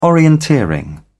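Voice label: "orienteering", British English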